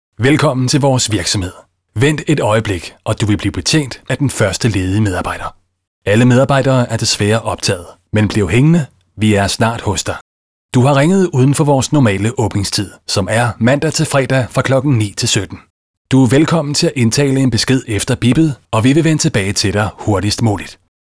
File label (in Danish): Neutral mand, Speak; indtalinger